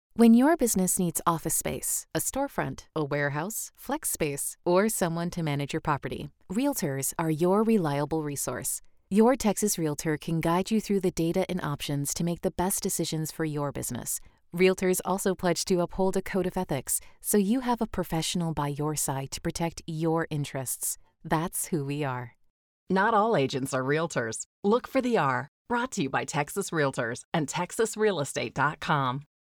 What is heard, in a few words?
Commercial; REALTORS®